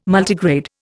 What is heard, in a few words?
"Multigrade", Indian